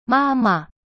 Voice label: "妈妈" ma ma, chinese